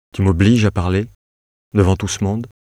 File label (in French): neutre moyen